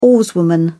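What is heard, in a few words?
"oarswoman", English, British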